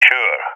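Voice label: affirmation, voice, agents, balkan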